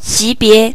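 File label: level, Basque; gcompris-data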